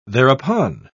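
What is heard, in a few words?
"thereupon", British English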